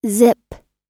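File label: "zip", English word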